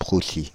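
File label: "Proussy", French, Paris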